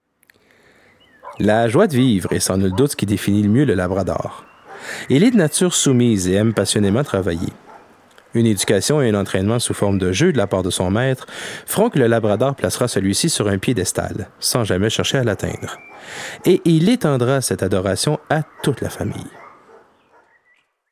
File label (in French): Narration internationale